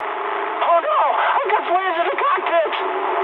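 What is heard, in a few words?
English on fire mood high; speech; War Thunder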